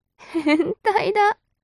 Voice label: mob女子台詞

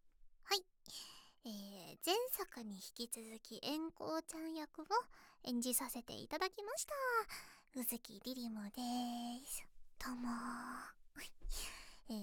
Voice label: フリートーク, ASMR

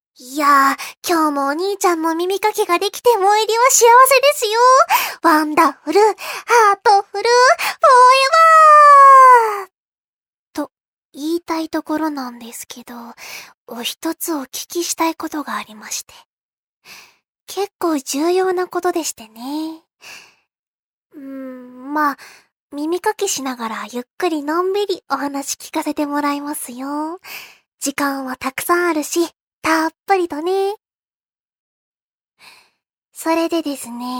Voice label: ボイスのみ; 絶対ずっと私が君を独り占め！

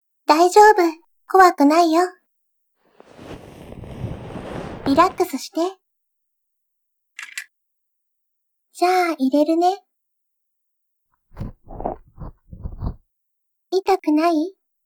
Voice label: 耳掻きされる音声作品 立体音響（強）版; ヤンデレに拉致監禁されて

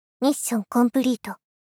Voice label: ミッションコンプリート 大人×中音域

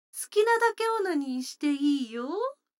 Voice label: 女の子台詞, ASMR

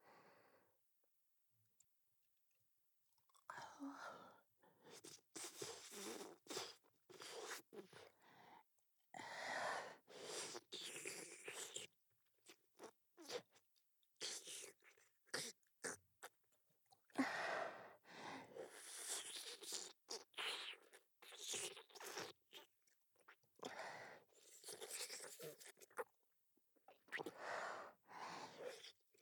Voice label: チンポ舐め, ASMR

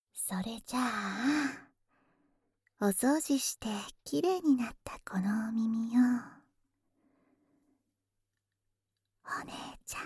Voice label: 綺麗になったお耳をぺろぺろ（囁き重視編集 効果音あり）, ASMR

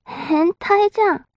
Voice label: mob 女子 台詞

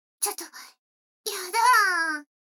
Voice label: 女の子台詞, ASMR

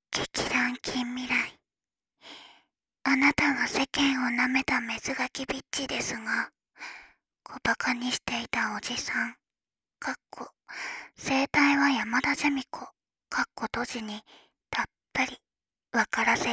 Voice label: タイトルコール

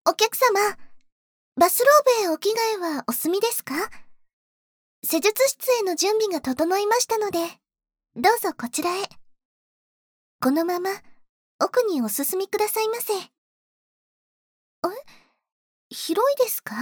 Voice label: 洗顔・お顔のマッサージ（SEなし）, ASMR

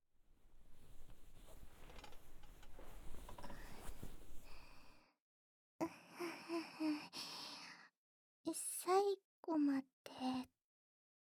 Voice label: ピロートーク, ASMR

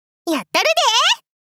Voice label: やったるで～！関西弁の女子×中音域

